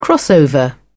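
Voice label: "crossover", British English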